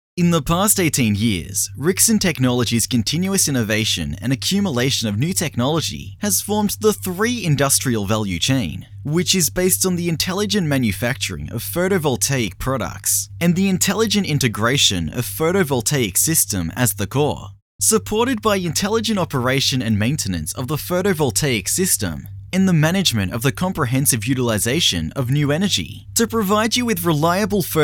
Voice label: 宣传片英文台词

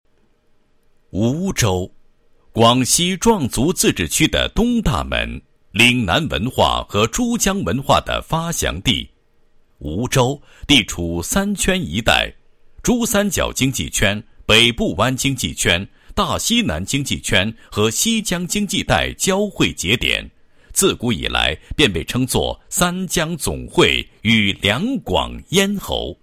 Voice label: 男 工程项目 解说; 苍海文化中心项目解说

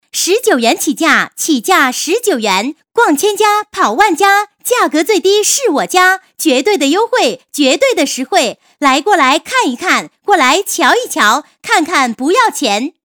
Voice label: 女 促销 叫卖, 国语女声配音; 19元起价

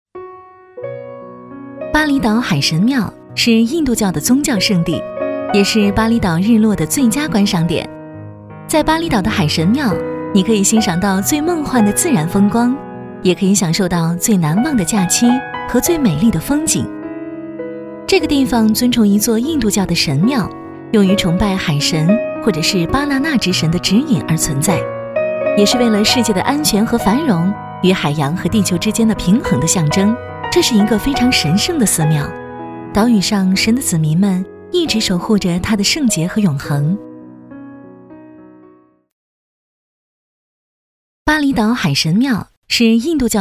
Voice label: 解说 巴厘岛

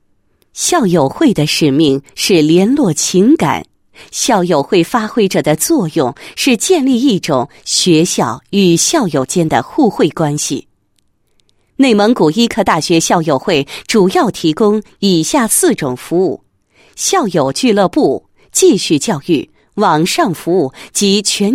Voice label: 女 聚会 校友会, 配音案例; 内蒙古医科大学

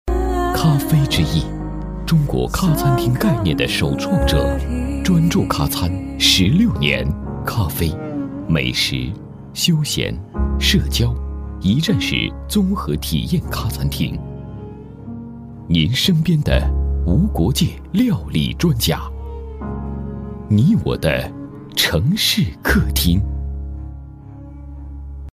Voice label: 国语男声配音, 广告; 咖啡之翼; 全能录音

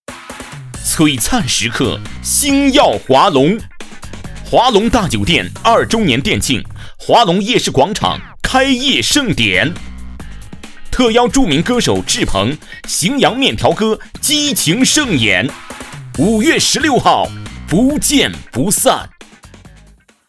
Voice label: 广告; 华隆集团

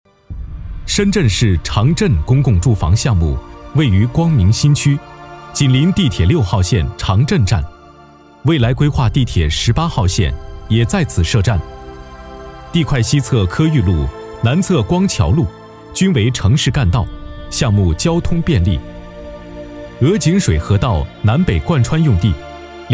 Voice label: 男 项目介绍多媒体样音

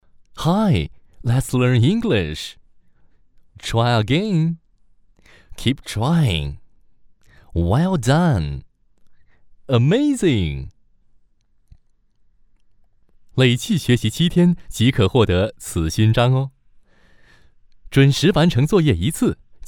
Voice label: 男 APP 提示音 中英 亲切版

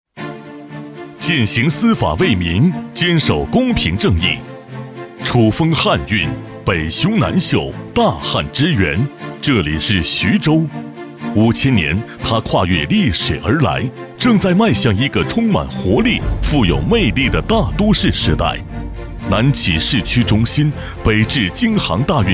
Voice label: 男60号 专题 政法类 利落; 鼓楼法院; 交广主播

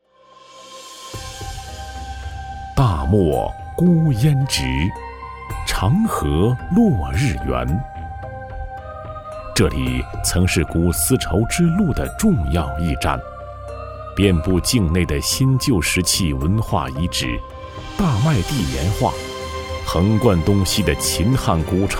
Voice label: 男 纪录片 古风水韵

